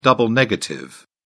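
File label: "double negative", British English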